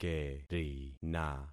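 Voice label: "kerina", concatenated syllables; Affixing experiment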